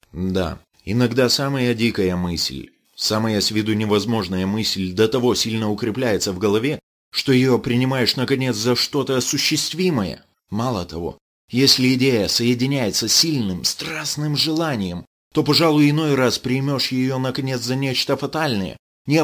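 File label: Russian, General; GoLocalise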